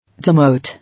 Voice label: "Demote", English

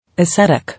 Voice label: "Ascetic", English

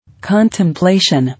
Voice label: "Contemplation", English

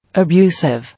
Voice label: "Abusive", English

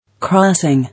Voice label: "Crossing", English

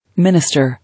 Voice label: "Minister", English

